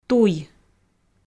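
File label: "туй", Komi, female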